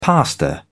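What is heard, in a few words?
"pastor", British English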